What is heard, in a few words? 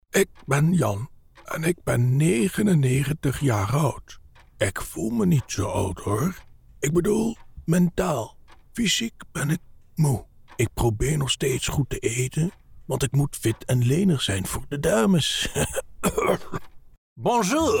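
Character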